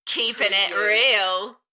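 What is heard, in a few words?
British female Keepin it real Enthusiastic; beanstalk audio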